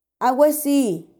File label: "2agwesí", in 8 days, macuiltianguis